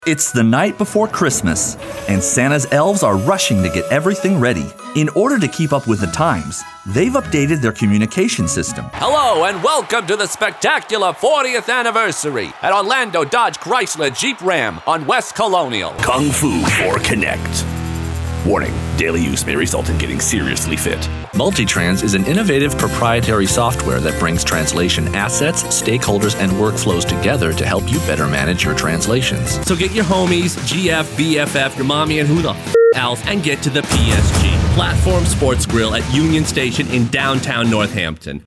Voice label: Commercial